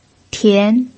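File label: "tian", Mandarin Chinese pinyin, second tone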